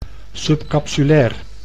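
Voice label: "subcapsulair", Dutch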